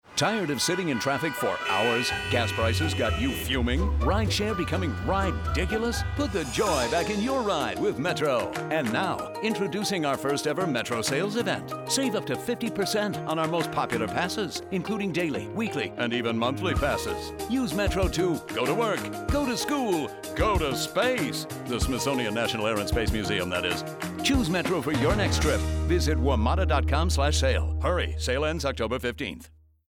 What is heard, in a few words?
Metro Pas Promotion Radio Mix